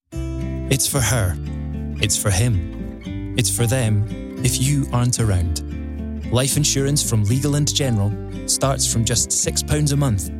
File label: Life Insurance Commercial